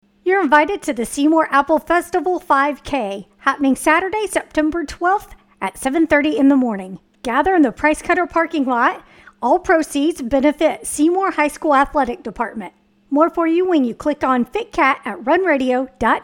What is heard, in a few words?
5K Promo; Seymour Apple Fest